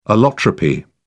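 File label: "allotropy", British English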